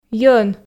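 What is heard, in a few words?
"йӧн", Komi, female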